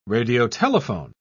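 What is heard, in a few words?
"radiotelephone", British English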